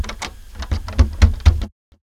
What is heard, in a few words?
"lock", vox